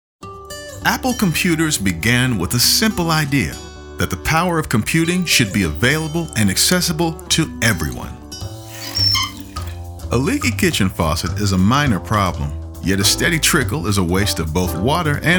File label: Educational Narrative; Voiceover Demo Reel; SchwartzSound